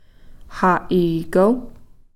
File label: "ha'iiko", nine